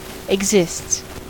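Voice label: "exists", US English; pronunciations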